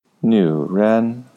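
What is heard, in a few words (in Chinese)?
"nüren", Mandarin Chinese; Student B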